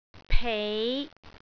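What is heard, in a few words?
"pei5", Cantonese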